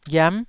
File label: "ghiam9", Taiwanese syllable